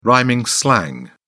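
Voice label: "rhyming slang", British English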